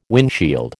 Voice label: "windshield", American